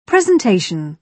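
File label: "presentation", British English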